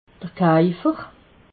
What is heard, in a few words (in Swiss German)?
"kaufer", alsace